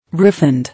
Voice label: "Refund", English

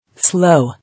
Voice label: "Slow", English

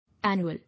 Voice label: "Annual", English

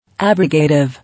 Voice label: "abrogative" English

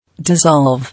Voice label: "Dissolve", English

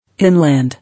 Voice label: "Inland", English

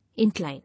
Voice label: "Incline", English

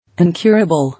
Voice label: "Incurable", English

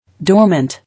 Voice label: "Dormant" English

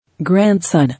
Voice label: "Grandson", English

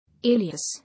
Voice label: "Alias", English

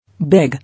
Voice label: "Big", English

